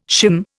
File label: "shim", Indian